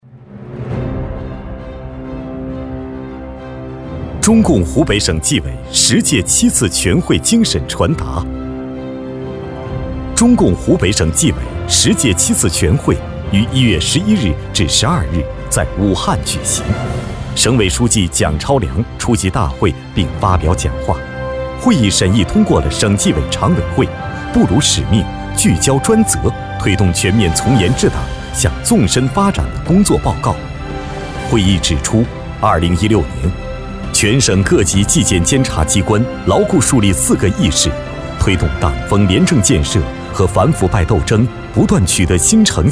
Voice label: 男 专题 党政风格; 湖北党政风格

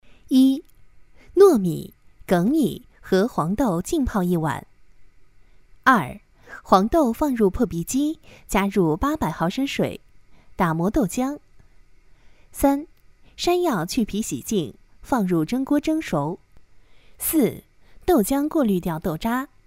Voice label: 女-课件-糯米粳米和黄豆浸泡

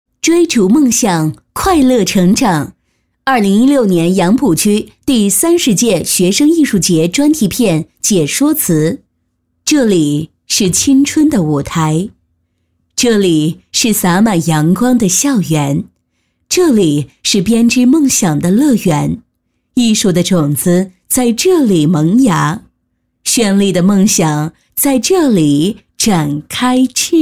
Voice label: 女 专题 上海艺术节

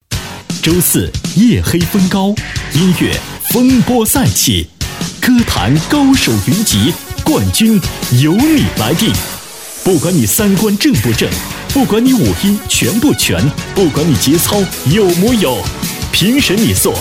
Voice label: 男 片花 酷音乐排行榜

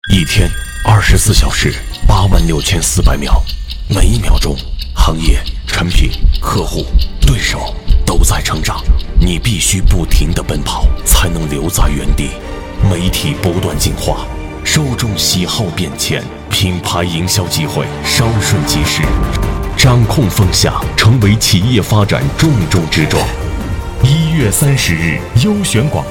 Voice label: 男 广告; 客户答谢会

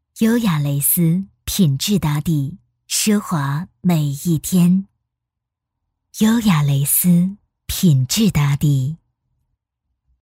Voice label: 女 广告; 优雅蕾丝

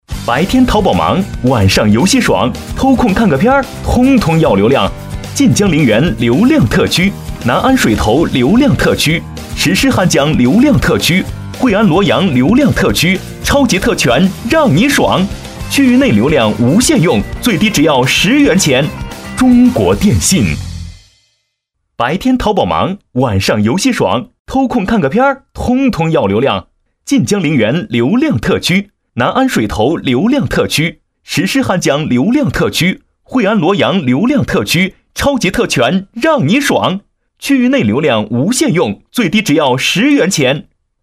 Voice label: 男 广告; 中国电信

